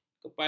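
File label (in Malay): "kepala", Malay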